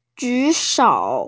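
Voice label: "ju3shou3", Mandarin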